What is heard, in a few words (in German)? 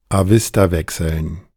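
"Avistawechseln", German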